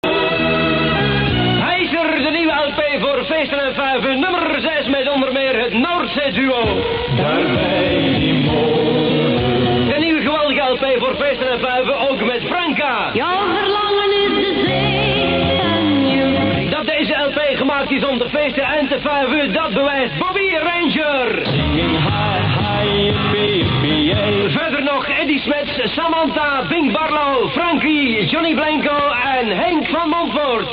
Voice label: commercial